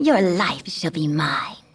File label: Female; baldurs1